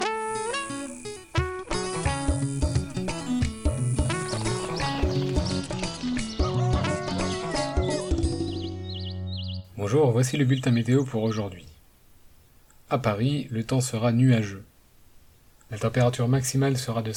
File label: French Autumn Weather Forecast, listening comprehension